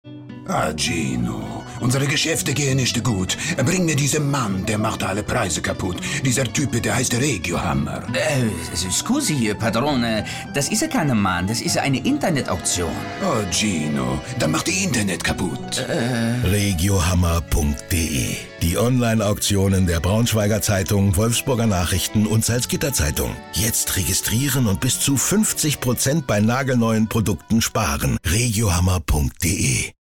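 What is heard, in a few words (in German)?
Szene Akzent italienisch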